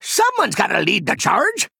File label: Male Goblin Play